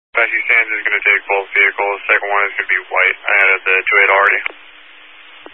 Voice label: Highway Patrol, Phoenix Metro East Area, scanner